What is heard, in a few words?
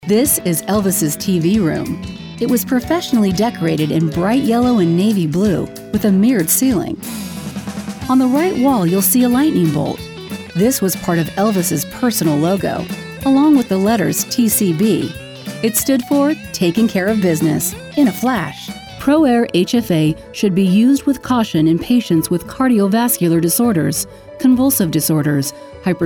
Narration